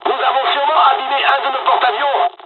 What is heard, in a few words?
dialog, dialogs french; War Thunder